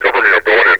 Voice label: cover me going in, metropolice vo; Half Life Alyx